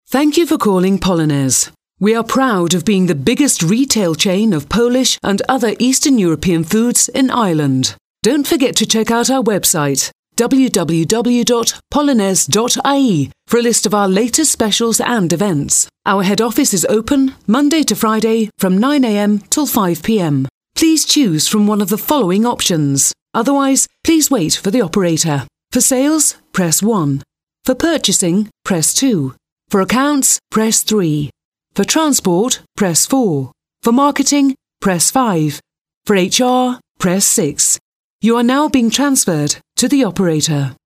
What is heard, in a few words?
IVR EN; Polonez